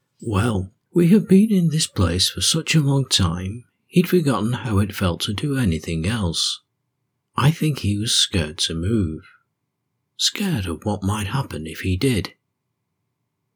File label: Relax video